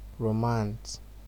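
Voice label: "romance", Nigerian Pidgin, male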